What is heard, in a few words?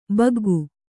"ಬಗ್ಗು", kannada